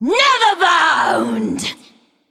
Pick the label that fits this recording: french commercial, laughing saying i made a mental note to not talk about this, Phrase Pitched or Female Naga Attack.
Female Naga Attack